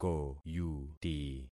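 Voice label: "koyuti", concatenated syllables; Affixing experiment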